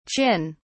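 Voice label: "qin", British English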